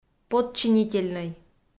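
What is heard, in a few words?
"подчинительнӧй", Komi, female; kpv audio dict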